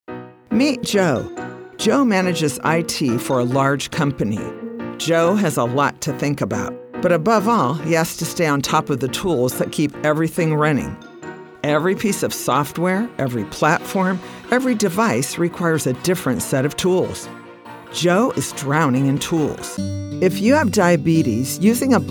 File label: Narration